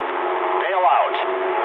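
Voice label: English bailout mood low; speech; War Thunder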